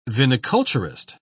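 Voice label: "viniculturist", English, British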